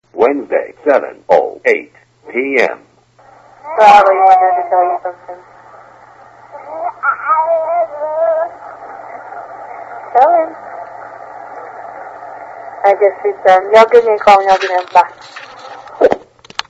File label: phone message; i love you phone message; You know a infant can't talk at 6 months old but the phone message you are about to hear may grab your attention.